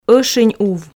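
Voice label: "ӧшинь ув", Komi, female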